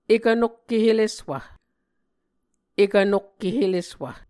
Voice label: "ekv nokke heleswv", muscogee; Mvskoke Words with Voicing Consonants; Onvkv Ohhvyayices; A Dictionary of Creek/Muskogee; SIL International